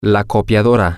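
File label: the copier, Spanish, male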